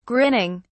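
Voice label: "grinning", British English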